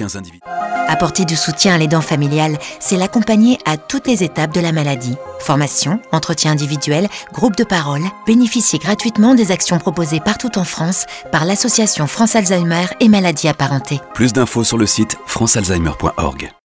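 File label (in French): Spot radio Douce attentive, voix off féminine; Alzeimer; Pub Radio; Santé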